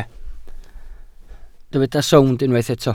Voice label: "sownd", Welsh

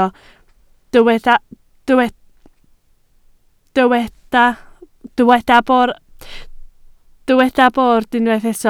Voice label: "bord", Welsh

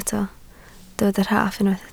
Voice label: "rhaff", Welsh

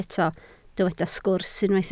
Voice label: "sgwrs", Welsh

